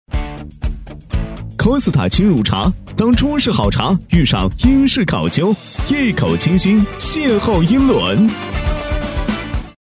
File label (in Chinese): 男 广告配音 动感年轻; Costa 轻乳茶; 男A1-成熟浑厚; 轻松活泼